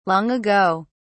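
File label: "long ago", British English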